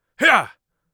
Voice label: attack, mage voice; Mage Voice Pack